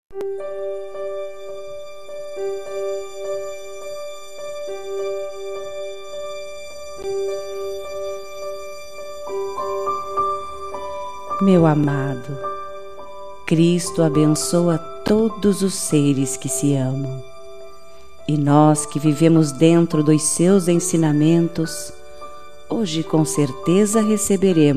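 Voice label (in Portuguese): Namorados pra Evangelico, female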